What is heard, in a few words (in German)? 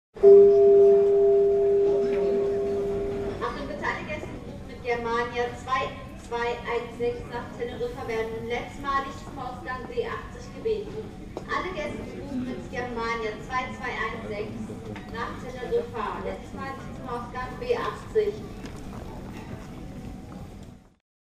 Flughafen Ansagen; Germania